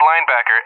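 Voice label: "Linebacker", English, USA; AirDefence; DCS; Allied Flight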